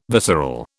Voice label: "visceral", British